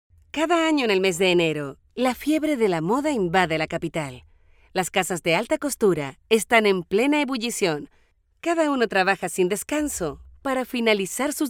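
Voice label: latam female narration; voice demos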